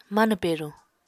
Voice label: "manw peruñ", Apatani

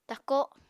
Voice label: "tako", Apatani

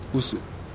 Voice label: "usu", Nukuoro; Nukuoro Talking Dictionary

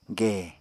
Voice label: "gè", Apatani

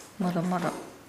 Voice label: Nukuoro; clear in outline

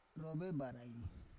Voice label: "rovebarai", Roon

